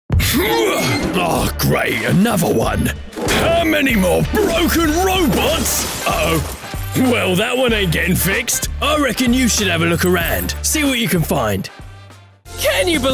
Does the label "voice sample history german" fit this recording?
No